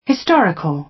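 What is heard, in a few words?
"historical", British English; Time, history; Thời gian, lịch sử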